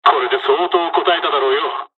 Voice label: dialogs japanese; War Thunder